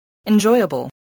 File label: "enjoyable", spelling